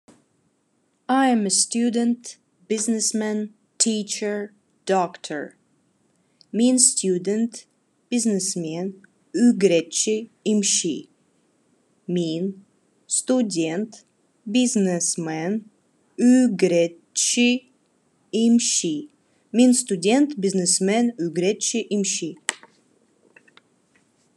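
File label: Khakas I am student businessman teacher doctor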